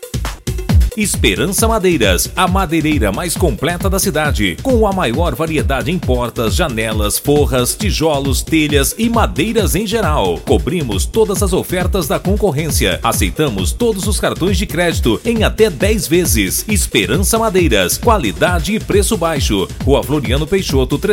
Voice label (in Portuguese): spot; esperanca madeiras